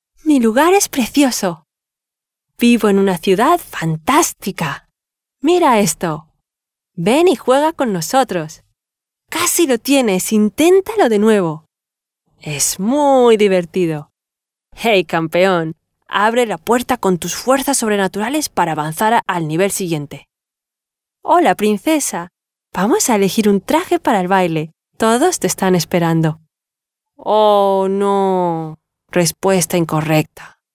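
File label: Spanish female children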